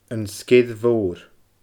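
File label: "An Sgeir Mhòr", Scottish Gaelic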